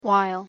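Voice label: "while", English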